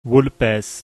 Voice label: "vulpes", Latin